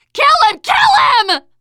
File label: tank pound, teen girl; player; survivor; voice